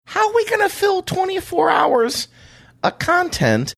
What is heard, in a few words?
saying how we gonna fill twenty four hours a content funny